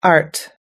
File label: "art", US English